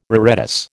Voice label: "reredos", American